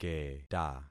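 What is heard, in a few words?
"keta", concatenated syllables; Affixing experiment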